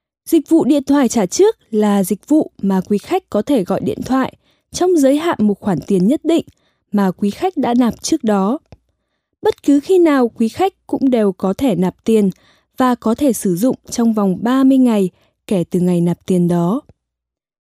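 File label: Vietnamese, female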